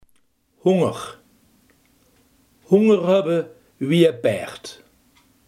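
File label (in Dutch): honger, Limburgse gesproken woordenboeken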